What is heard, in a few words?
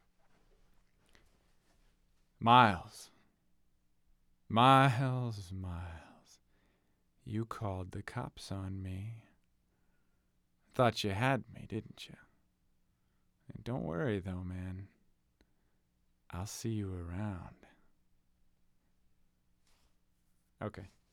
Phone Call; Broken Road ADR